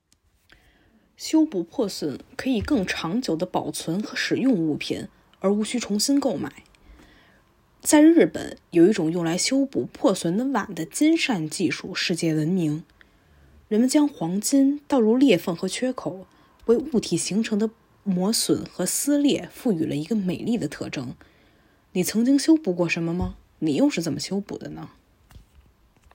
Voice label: Mandarin; Japanese bowl